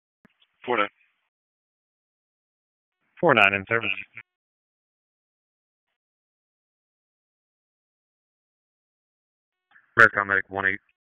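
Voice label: EMS Dispatch; wake; p25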